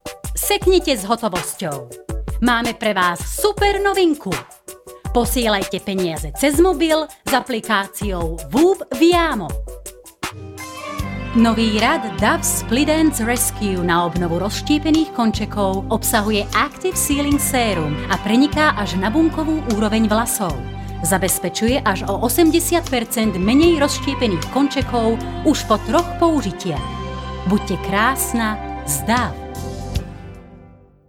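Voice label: Slovak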